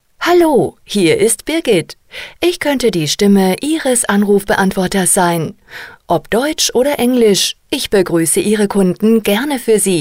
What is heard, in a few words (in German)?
Sprecher Telefonansagen